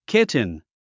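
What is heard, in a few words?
"kitten", English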